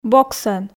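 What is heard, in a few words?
"боксӧн", Komi, female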